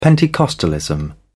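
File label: "pentecostalism", British English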